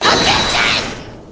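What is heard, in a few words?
"objection", male; payne; winston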